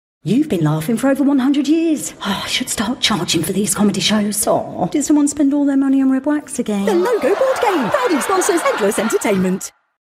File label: sponsorship bumper, voiceover